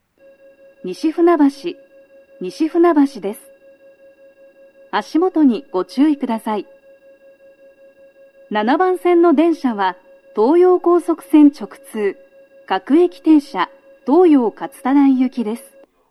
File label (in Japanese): 到着放送 女声, 各駅停車 東葉勝田台行; 7番線 中野・三鷹・東葉勝田台方面; 収録者:こたけ